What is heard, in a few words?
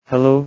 Male prototype 'hello'